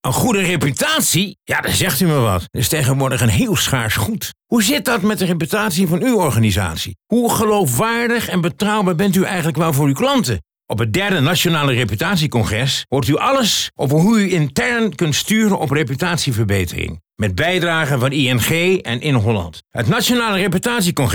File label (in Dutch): Radiospot; NieuwBestuur Nationale Reputatie Congres 2012